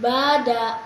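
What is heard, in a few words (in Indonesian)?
"Badak", Indonesian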